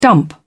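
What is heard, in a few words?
"dump", British English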